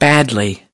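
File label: "badly", English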